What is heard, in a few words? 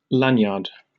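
"lanyard", British English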